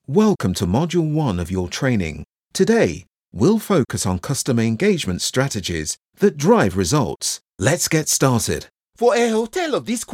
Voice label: E-Learning Voice